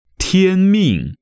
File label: "Tianming", Chinese